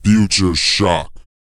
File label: pitch low; R&B & Dance; SCI-FI; ILIO; Vocal Planet